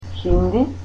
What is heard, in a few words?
"Hindi" Macedonian